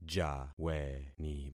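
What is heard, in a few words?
"jaweni", concatenated syllables; Affixing experiment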